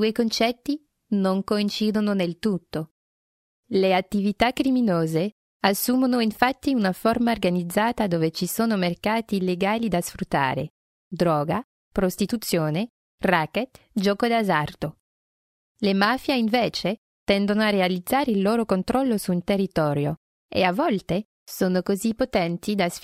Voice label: Italian

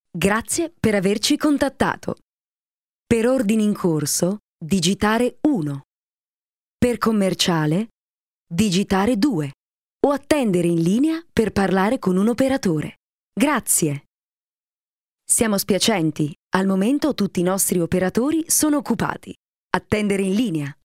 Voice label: Italian

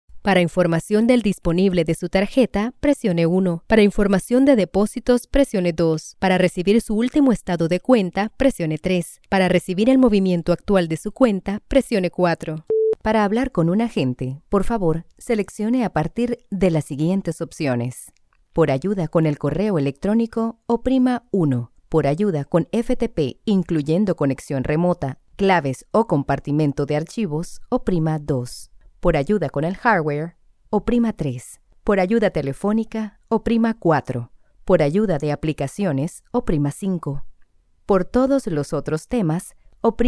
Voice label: Spanish

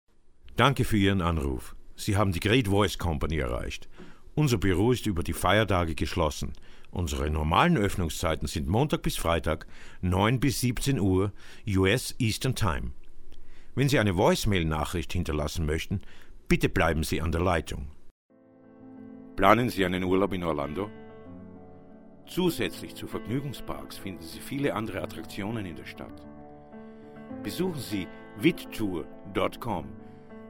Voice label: IVR

